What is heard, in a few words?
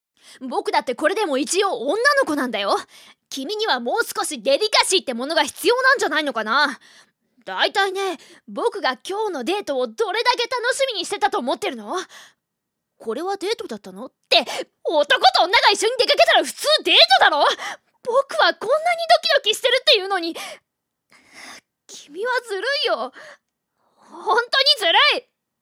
boy